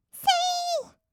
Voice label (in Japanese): せいー, kawaii voice; 音ゲー